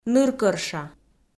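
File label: "ныркӧрша", Komi, female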